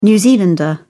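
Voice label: "new zealander", British English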